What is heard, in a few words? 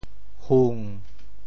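"hung7", Teochew; 潮州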